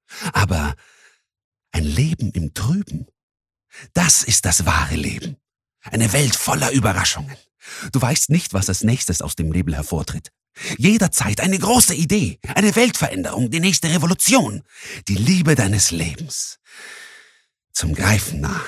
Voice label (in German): Klarheit Dynamisch